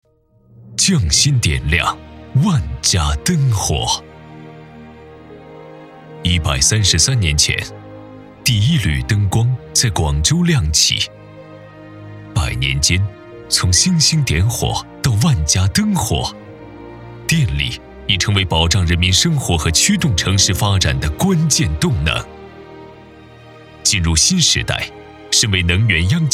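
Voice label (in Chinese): 汇报类党建片; 南方电网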